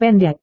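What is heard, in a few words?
"pendek", Indonesian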